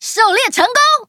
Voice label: levelup zh-hant; Cookie Run